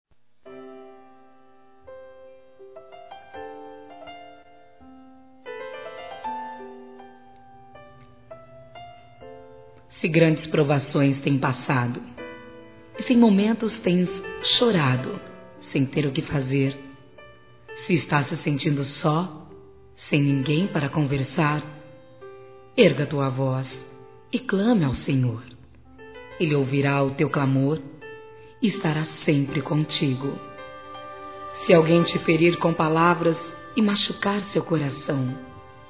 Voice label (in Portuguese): Trilha OTIMISMO GERAL, Gospel, fem; Telemensagens; Telemensagens 2017